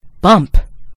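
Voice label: "bump", American English; gcompris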